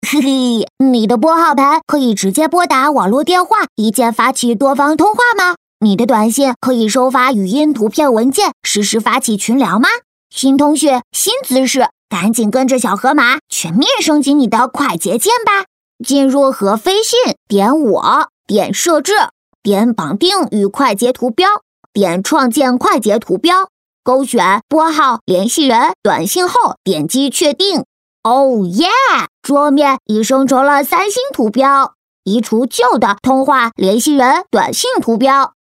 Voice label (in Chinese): 女 模仿 男童可爱